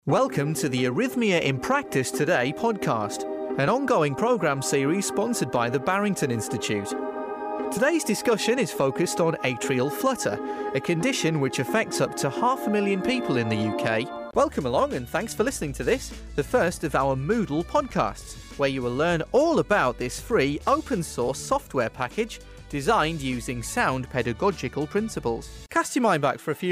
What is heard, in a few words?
Podcasting Montage